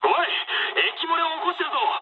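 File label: damaged water mood high, speech japanese; War Thunder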